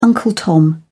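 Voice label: "uncle tom", British English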